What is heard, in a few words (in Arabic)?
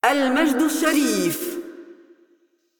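assist, Arabic; ar AE; VO; Kayle; bloom minigame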